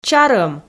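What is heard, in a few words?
"чарӧм", Komi, female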